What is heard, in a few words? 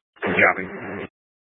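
Fire Dispatch, scanner audio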